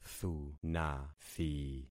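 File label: "thunathi", concatenated syllables; Affixing experiment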